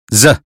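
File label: American phonetics sound zoo; 英语音标发音（剑桥版）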